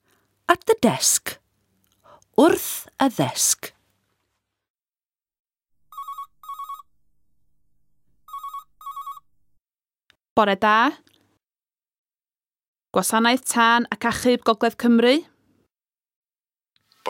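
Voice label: dialogue phone conversation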